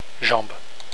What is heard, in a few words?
"jambe", french; la/une jambe